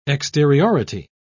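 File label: "exteriority", British English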